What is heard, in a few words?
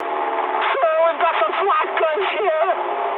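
damaged anti-aircraft mood high, speech English; War Thunder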